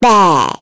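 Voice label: Vox Deny, Korean; voices; heroes; Lavril; kingsraid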